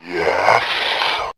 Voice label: "yes", CREEPY

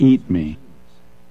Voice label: eat me, announcer; Voice

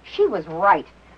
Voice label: she was right female; Voice

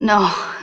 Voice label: "no", Voice; expletives

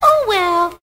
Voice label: "oh well", girl; expletives

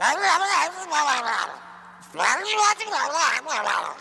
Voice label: frazzle, gibbrish; Voice

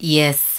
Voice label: "yes", female

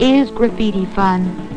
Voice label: is graffiti fun female, voice, question; questions

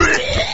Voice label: "bleh", Voice

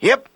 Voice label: yep old prospector man

